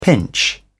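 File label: "pinch", British English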